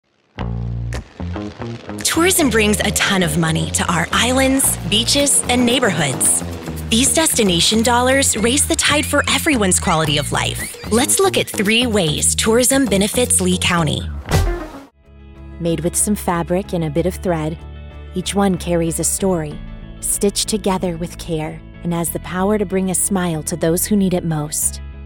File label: Explainer, Female